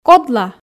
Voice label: "кодла", Komi